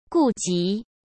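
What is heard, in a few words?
"顾及", Mandarin Chinese; chinese flashcards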